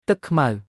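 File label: "ទឹក ខ្មៅ", Khmer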